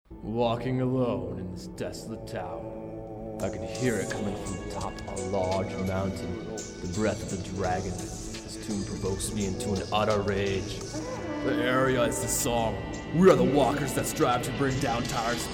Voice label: Commercial

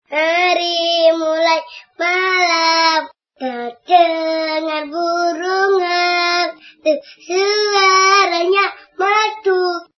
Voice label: voice original

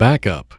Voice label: "Backup", computer voice

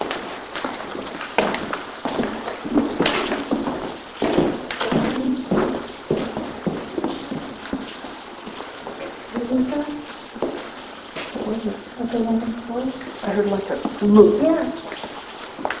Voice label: Faint Female Voice

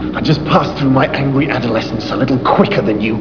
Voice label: angry

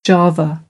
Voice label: java

